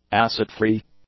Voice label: "acid-free", English; adjectives

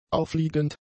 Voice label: "aufliegend", German; adjectives

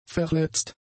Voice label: "verletzt", German; adjectives

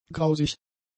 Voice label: "grausig", German; adjectives

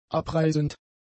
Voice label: "abreisend", German; adjectives

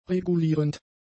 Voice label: "regulierend", German; adjectives

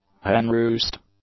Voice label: "hen-roost", English; nouns

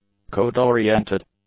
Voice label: "code-oriented", English; adjectives

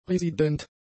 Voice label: "resident", German; adjectives

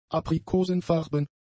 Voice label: "aprikosenfarben", German; adjectives

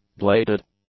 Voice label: "bladed", English; adjectives

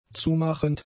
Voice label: "zumachend", German; adjectives

